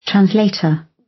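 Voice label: "translator", British; Language, linguistics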